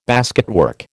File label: "basketwork", American